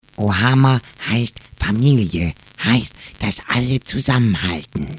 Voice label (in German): comicstimme